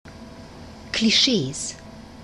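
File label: "klischees", German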